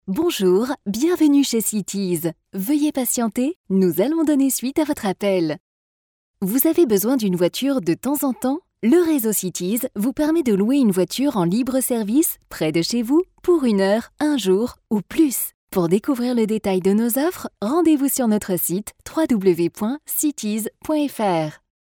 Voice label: tel dynamique

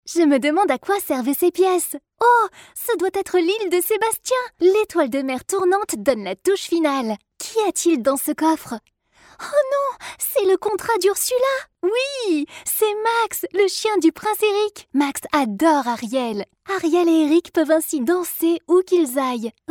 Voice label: joue pub; disney

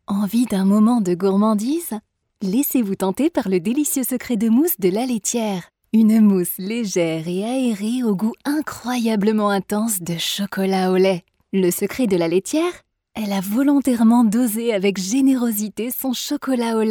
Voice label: pub promo gourmande

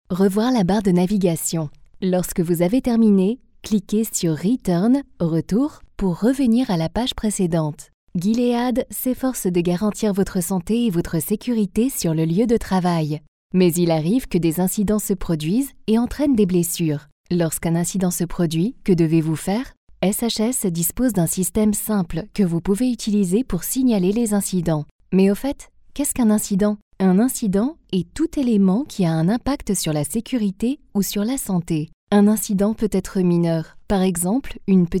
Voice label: e-learning corporate, voix

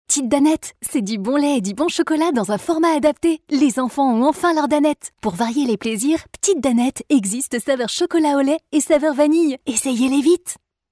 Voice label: maman jeune

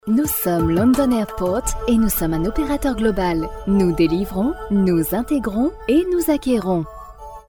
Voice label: rassurant; london airport

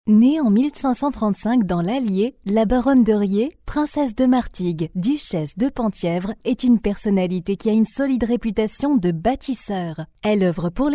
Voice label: audioguide historique personnel, voix

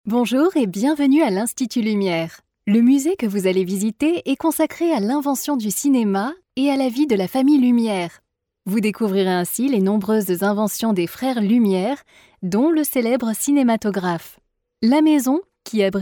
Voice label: audioguide exposition, voix

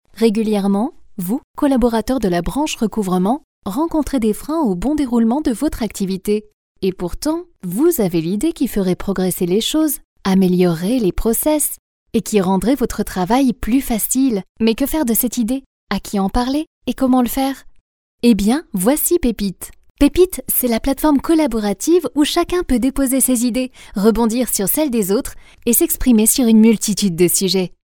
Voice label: explicatif amical, voix; une voix claire, dynamique

